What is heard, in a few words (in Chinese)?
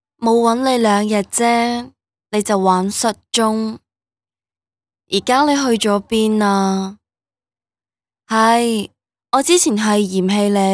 女【角色】冇稳你两日姐, 粤女